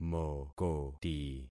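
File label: "mokoti", concatenated syllables; Affixing experiment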